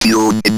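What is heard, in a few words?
ROBVOX, robot voice; E-Lab; X Static Goldmine 1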